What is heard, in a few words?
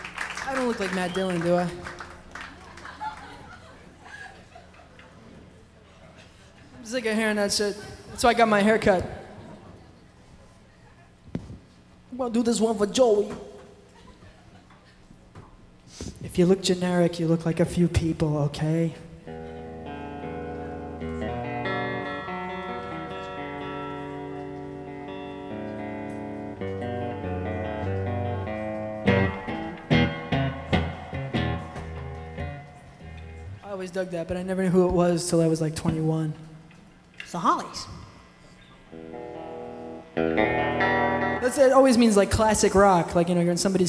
monologue